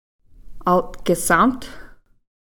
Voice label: "althkisamth", green

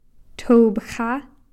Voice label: "thopxa", Cow Creek

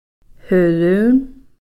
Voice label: "huluun", ocean

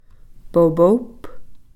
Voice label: "popoph", Cow Creek

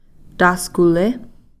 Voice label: "taskuli", short

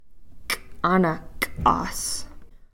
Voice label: "k'anak'as", cup

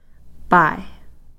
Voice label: "pai-", Cow Creek

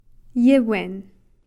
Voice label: "yiwin", Cow Creek

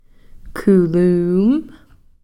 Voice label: "khuluum", fish

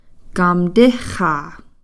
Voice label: "kamtixa", paternal grand relation (traditional)

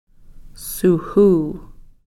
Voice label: "suhuu", quail

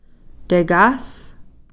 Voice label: "tekas", Cow Creek

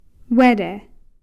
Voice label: "wete", Cow Creek

